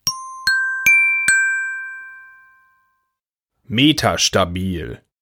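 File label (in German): "metastabil", German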